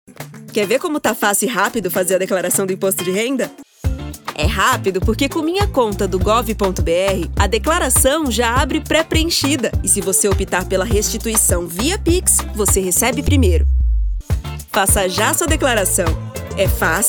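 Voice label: Brazilian Portuguese, female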